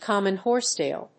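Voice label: "common horsetail", English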